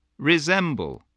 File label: "resemble", English